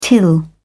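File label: "till", British English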